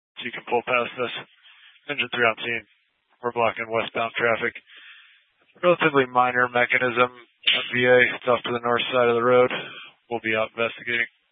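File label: Incident Tactical, scanner audio recording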